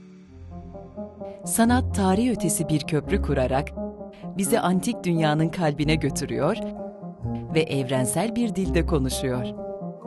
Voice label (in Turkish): belgesel kaydi; trt